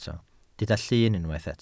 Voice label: "llun", Welsh